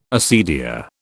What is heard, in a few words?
"acedia", British